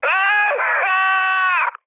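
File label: damaged pilot, mood low, Polish speech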